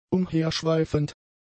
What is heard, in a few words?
"umherschweifend", German; adjectives